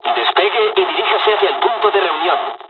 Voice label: takeoff, dialogs spanish; midway us4; War Thunder